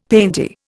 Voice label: "painty", Indian; Dictionary